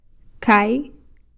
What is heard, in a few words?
"khai", something